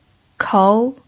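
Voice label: "kou" second tone, pinyin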